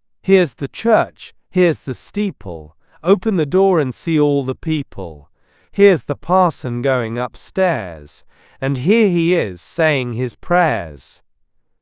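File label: male British; Heres the Church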